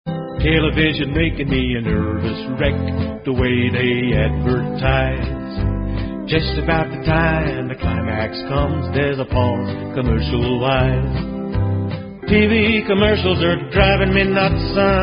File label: TV Commercials